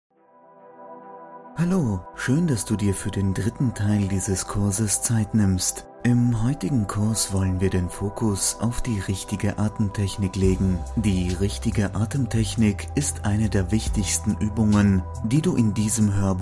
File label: GERMAN